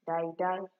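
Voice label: "diedie", Nigerian Pidgin, female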